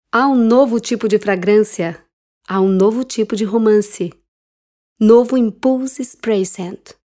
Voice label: Bajada, Portugues

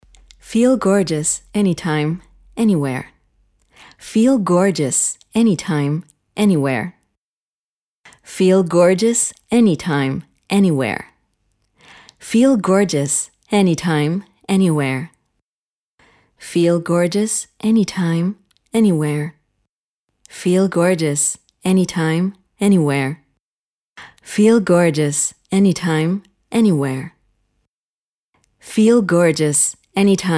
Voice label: Nuevos Baj Crudo, Ingles (USA)

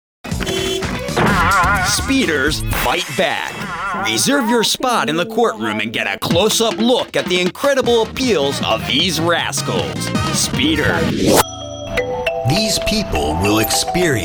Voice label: Commercial, Hombres Ingles; Casting Impulse

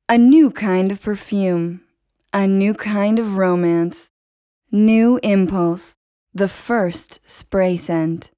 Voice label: Bajada, Ingles (USA)

